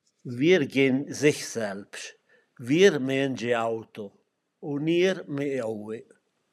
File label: Walser German (Gressoney Saint-Jean, Aosta Valley); Wir gen sich selbsch: wir m indschi auto un ir mi owe; AlpiLinK